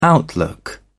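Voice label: "outlook", British English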